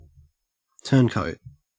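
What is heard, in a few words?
"turncoat", Australian English; pronunciations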